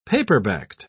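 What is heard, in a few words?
"paperbacked", British English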